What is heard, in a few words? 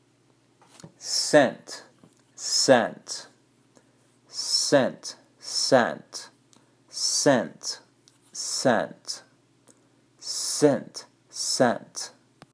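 Cent scent, English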